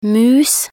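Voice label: "mus", Norwegian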